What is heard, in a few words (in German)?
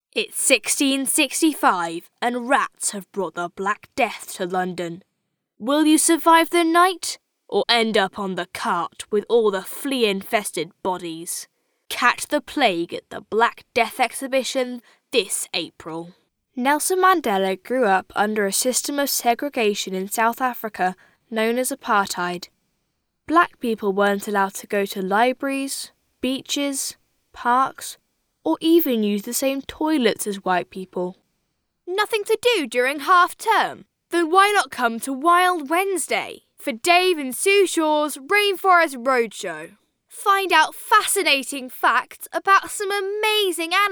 Sprechdemo